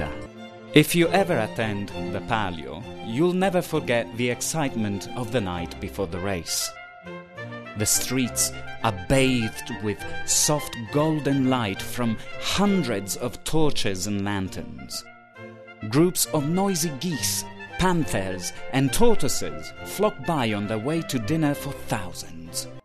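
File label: Italian, English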